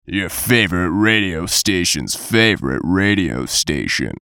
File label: VOICE COMICAL; Your Favorite Radio Stations Favorite Radio Station; Funny Sound; Blastwave